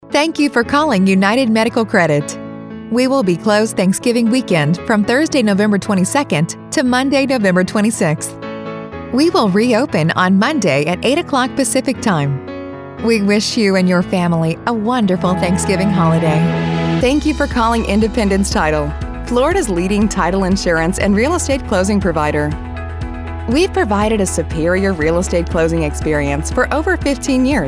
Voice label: Phones Voices